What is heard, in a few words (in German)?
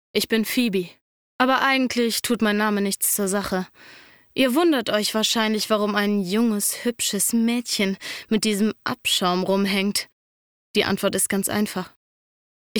sprecherdemo videospiel auftragskillerin